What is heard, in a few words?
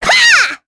Attack, kr; voices; heroes; Sonia; kingsraid